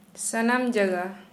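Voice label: Santali female everywhere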